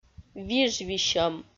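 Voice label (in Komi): виж висьӧм, Komi, female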